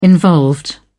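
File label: "involved", British English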